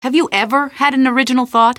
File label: taunt, citizen voice